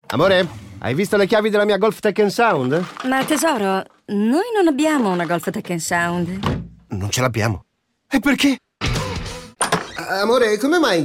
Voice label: radio; golf